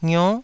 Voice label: "ngio9", taiwanese syllables